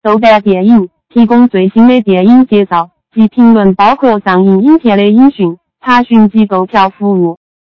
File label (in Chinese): 四川话